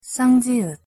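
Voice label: "ㅉ", Korean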